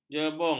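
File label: "jebung", Malay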